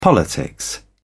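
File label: "politics", British English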